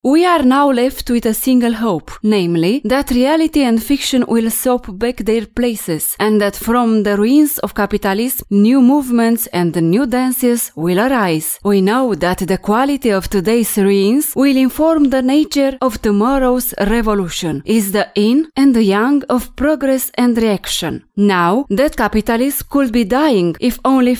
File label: english